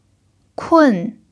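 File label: "kùn", Mandarin Chinese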